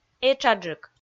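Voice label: "этшаджык", Komi, female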